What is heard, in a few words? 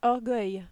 "orgueil", french